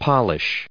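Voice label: "polish", us English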